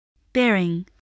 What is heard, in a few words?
"bearing", English